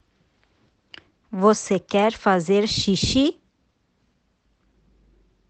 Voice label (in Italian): Portoghese